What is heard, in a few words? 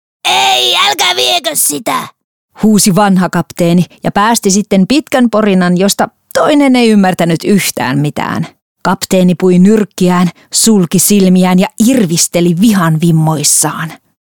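Finnish F Character, female; voice over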